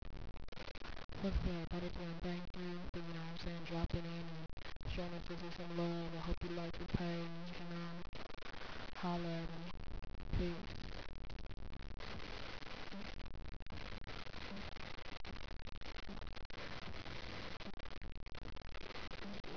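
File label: shout out with music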